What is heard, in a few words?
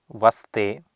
"vaste", Sanskrit